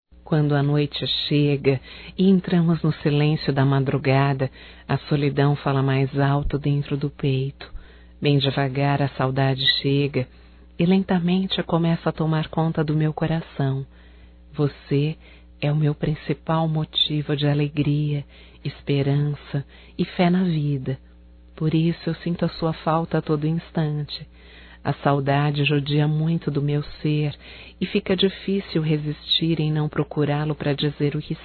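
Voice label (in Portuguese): SAUDADES ROMÂNTICA feminino, Telemensagens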